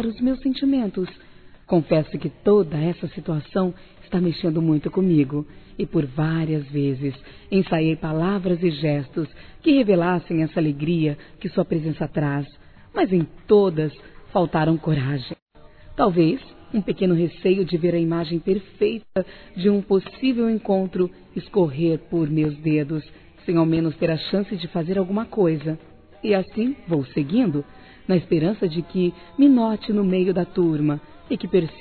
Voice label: PAQUERA F; Telemensagens; Conquistas Cantadas paqueras; fem; Telemensagens 2017

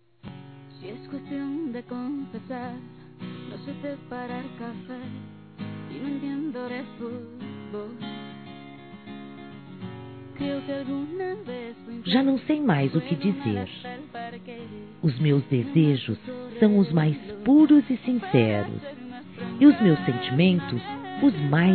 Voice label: Amor Não Correspondido FEM; Telemensagens

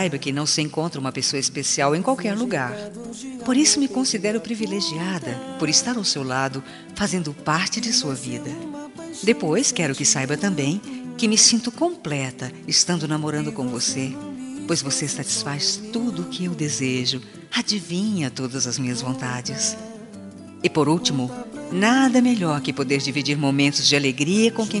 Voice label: ANIV ROMÂNTICO FEM

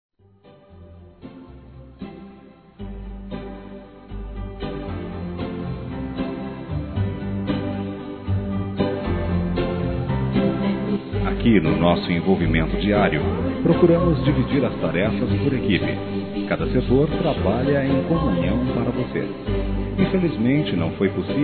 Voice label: ANIV. ATRAZADO, Telemensagens, masc; Empresarial; Telemensagens 2017

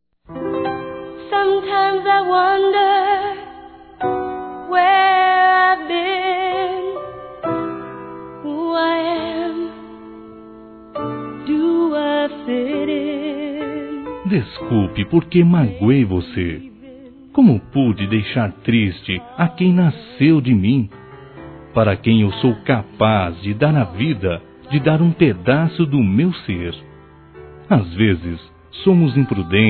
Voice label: Desculpas Filho (A) - Masc; Telemensagens 2017

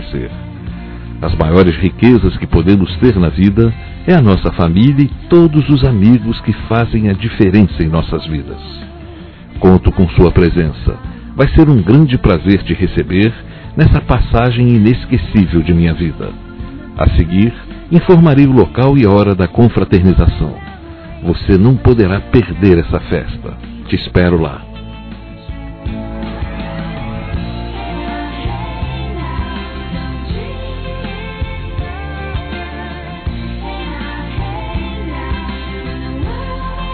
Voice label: Convite para Aniversário Masculino; Telemensagens; Convites e Agradecimentos; Telemensagens 2017

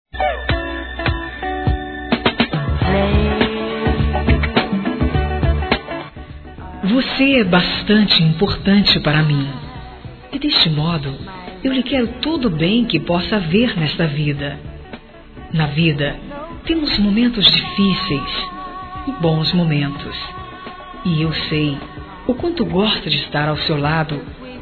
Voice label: Pense em mim Feminino, Telemensagens Românticas

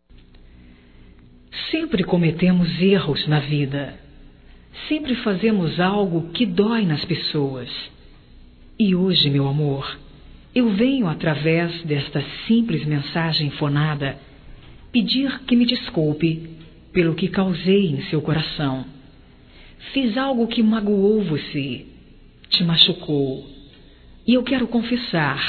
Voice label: DESCULPAS ROMâNTICA; Românticas

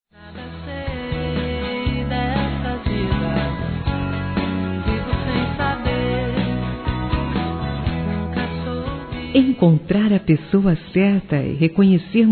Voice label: Variados Româtica Suave Feminino, Telemensagens; Românticas

